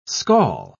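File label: "scall", British English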